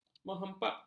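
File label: "menghempapkan", Malay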